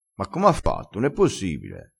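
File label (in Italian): "sduttissi", dialettale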